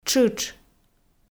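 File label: "тшытш", Komi, female; audio dict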